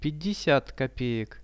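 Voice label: "50 kopecks", Russian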